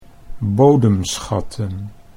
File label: "bodemschatten", Dutch